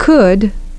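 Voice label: "could", English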